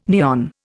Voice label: "neon", Indian

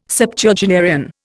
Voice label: "Septuagenarian", Indian

